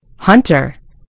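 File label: "hunter", British English; gcompris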